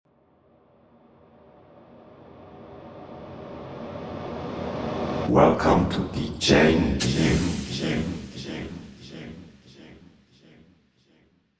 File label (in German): intro, Podcast